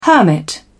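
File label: "hermit", British English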